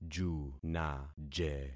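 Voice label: "junaje", concatenated syllables; Affixing experiment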